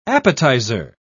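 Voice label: "appetiser", British English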